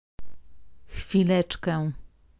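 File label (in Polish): "chwileczkę", Polish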